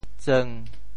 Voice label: "tseung1", Teochew; 潮州; 砖厚瓦薄; 厚此薄彼